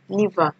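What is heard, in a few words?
"liver", Nigerian Pidgin, female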